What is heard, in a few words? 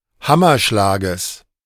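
"Hammerschlages", German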